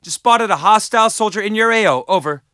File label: spot; bf3